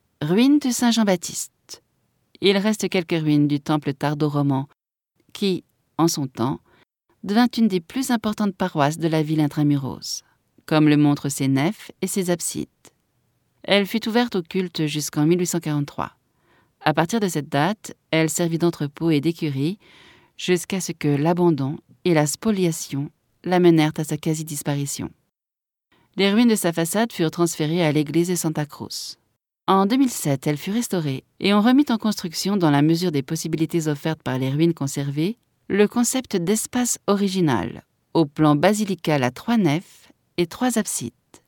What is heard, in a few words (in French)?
audioguia, frances; audioguia baeza